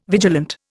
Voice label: "vigilant", Indian